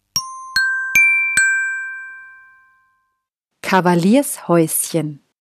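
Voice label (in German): "Kavaliershäuschen", German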